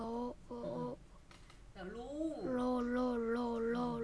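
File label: "low", spoken word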